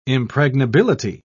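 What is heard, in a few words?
"impregnability", British English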